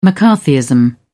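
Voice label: "mccarthyism", British English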